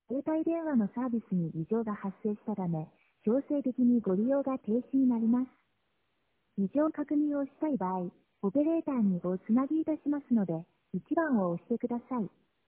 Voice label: voicemail